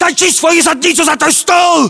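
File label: order cover desk, battlechatter, Russian; localized english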